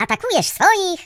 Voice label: Same team, voices, pl; hedgewars; Default pl